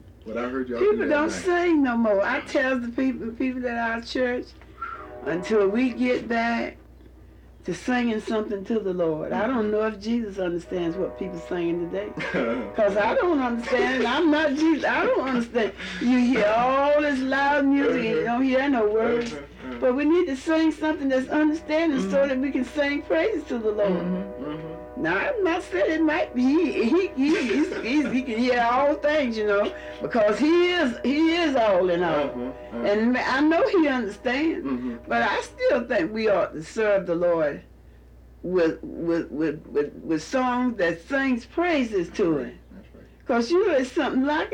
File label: African American; introduction language and linguistics